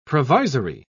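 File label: "provisory", British English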